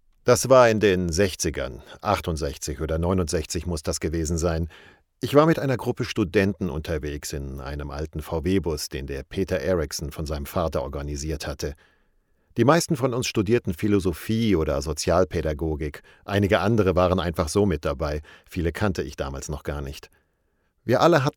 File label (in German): Voice Over